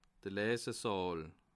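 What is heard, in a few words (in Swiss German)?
"lesesaal", Bas Rhin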